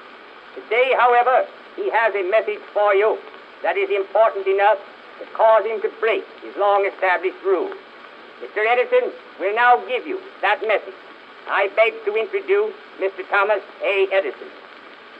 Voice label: Phonograph, Technology, Phonograph, Speech, Wax, Cylinder; Speech, Let, Us, Not, Forget; Miscellaneous Electronic; Thomas, Edison; BlastWave